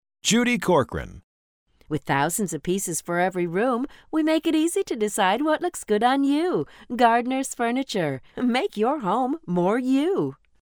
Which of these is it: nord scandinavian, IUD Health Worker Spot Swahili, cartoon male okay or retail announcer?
retail announcer